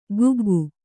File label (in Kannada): "ಕುಗ್ಗು", kannada